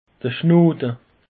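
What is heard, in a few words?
"schnode", Alsatian, Bas Rhin; peche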